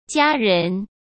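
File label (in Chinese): "家人", Mandarin Chinese; hsk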